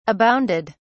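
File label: "abounded", English, British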